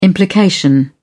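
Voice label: "implication", British English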